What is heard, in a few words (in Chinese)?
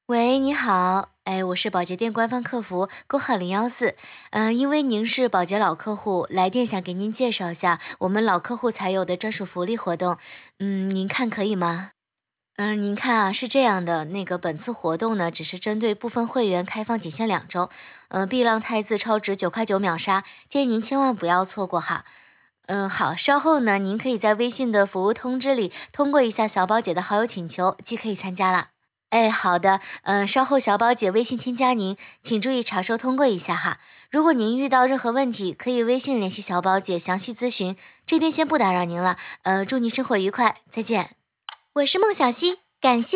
语音电话客服客服电话; 宝洁